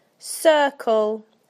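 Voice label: "circle", British English; PictureDictionary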